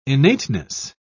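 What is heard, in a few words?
"innateness", British English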